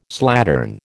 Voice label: "slattern", American

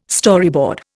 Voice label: "Storyboard", Indian

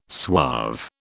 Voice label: "suave", British

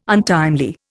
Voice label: "untimely", Indian

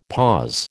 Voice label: "pause", American

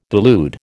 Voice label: "delude", American

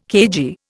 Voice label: "cagey", Indian

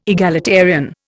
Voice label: "egalitarian", Indian

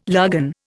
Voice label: "lagan", Indian

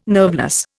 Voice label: "nerveless", Indian

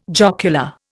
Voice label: "jocular", Indian

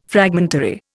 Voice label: "fragmentary", Indian

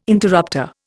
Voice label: "interrupter", Indian

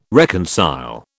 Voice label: "reconcile", British

